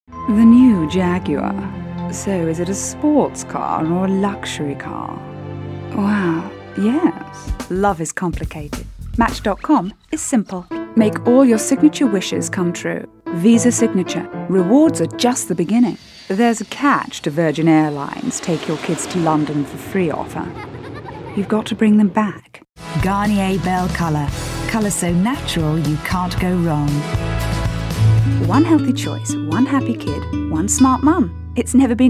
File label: british commercial